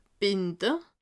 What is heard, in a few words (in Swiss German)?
"binde", alsace